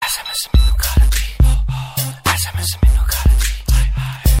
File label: punjabi whispa sms